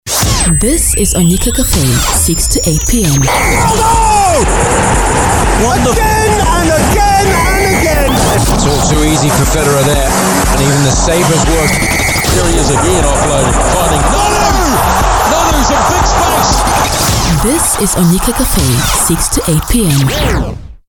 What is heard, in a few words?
Promo; Sportcafe Promo; Energy 100